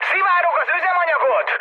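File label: Hungarian tank damaged mood high, speech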